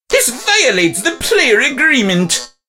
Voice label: hurt vo; meeple; 奥利

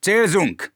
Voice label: neutral

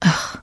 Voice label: trader monolog; 七日杀; 商人杰恩

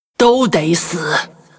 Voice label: Hero female demon hunter yes attack zh

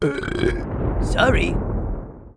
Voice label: Pandaren brewmaster storm pissed

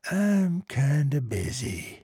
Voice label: trader male neutral greeting

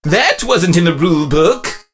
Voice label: meeple hurt; 奥利

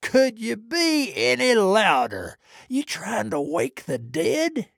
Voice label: trader male neutral greeting

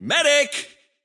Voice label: Medic intense

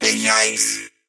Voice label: hurt

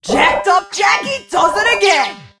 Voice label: drill lead voice; jackie

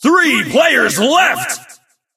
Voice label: announcer vo 3 players left

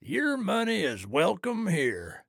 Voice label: trader male like greeting

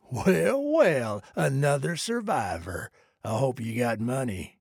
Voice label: trader male neutral greeting

